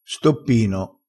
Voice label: "stoppino", vocabolario dialettale

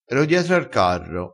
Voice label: "rincula", crespinese

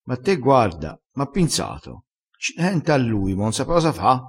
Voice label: "pinza", crespinese

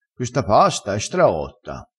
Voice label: "straotto", vocabolario dialettale crespinese

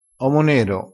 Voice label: "omo nero", crespinese; vocabolario dialettale

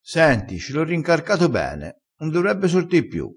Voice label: "rincarca", crespinese

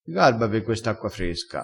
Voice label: "garba", crespinese

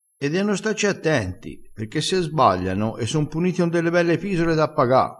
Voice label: "pisola", crespinese

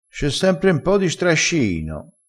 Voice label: "strasciino", crespinese

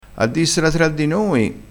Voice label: "a dissela tra di noi", Crespinese

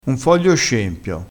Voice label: "scempio", vocabolario dialettale, crespinese

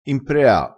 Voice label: "imprea", crespinese, vocabolario dialettale

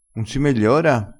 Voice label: "megliora", crespinese

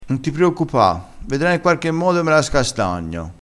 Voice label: "scastagnassela", crespinese

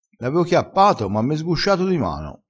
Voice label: "sguscia", crespinese

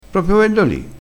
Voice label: "a posto", Crespinese

